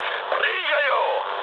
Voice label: bailout, mood high, speech ru; War Thunder